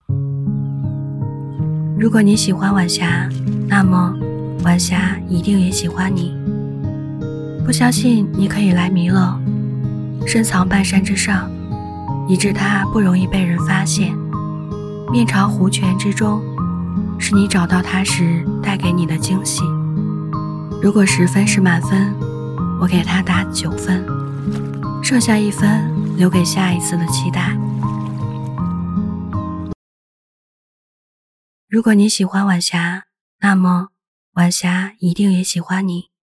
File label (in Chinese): 女音治愈风; 弥勒